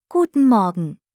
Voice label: German Good morning; Greetings